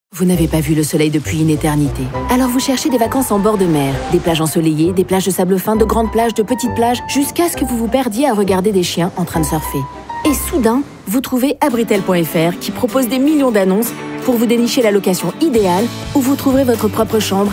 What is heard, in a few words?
PUB TV; Abritel